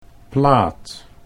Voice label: "plaat", Dutch

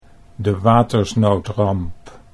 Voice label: "de watersnoodramp", Dutch

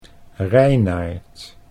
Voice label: "Reinaart" Dutch

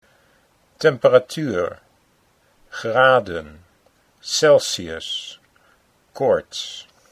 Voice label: temperatuur graden, Dutch

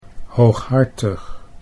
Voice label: "hooghartig", Dutch

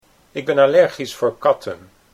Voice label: "ik ben allergisch katten", Dutch

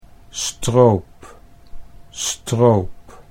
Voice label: "stroop", Dutch

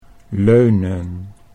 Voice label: "leunen", Dutch

